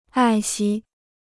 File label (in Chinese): "爱惜", Chinese